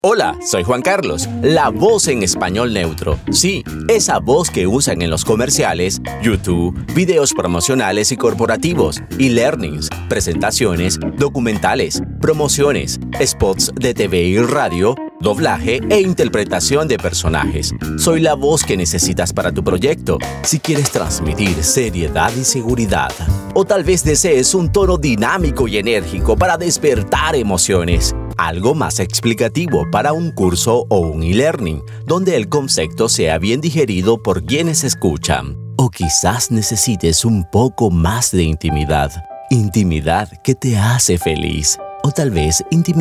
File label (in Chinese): 外籍西语男 广告